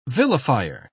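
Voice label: "vilifier", English, British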